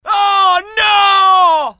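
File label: fear grenade, IT; mohaa; dialogue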